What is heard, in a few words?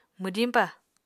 "mwdiñpa", Apatani